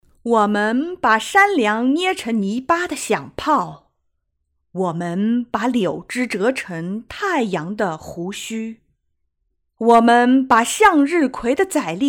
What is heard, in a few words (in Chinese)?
现代诗歌样音